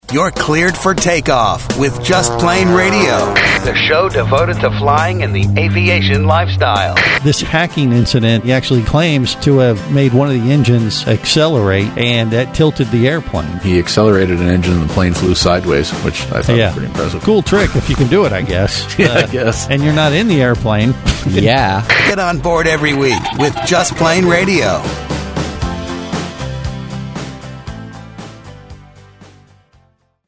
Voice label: Promo; Hack Airplane